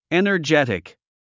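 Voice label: "energetic", English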